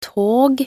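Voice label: "tog", Norwegian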